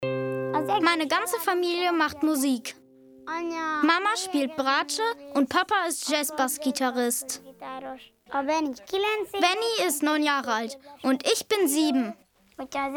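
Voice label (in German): voice-over, Kindersprecher; kika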